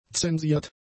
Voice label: "zensiert", German; adjectives